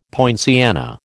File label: "poinciana", American